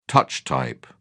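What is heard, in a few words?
"touch type", English, British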